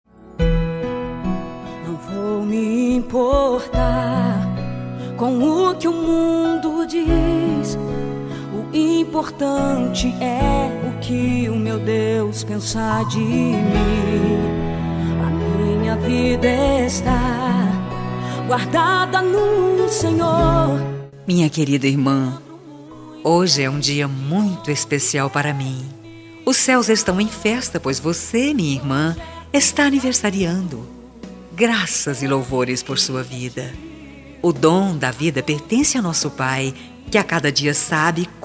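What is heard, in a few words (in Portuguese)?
aniversario evangelico irma feminino, telemensagem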